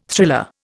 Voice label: "thriller", Indian